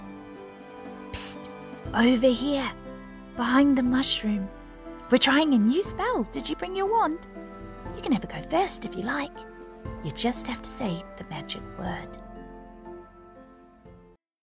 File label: Fairy, en-NZ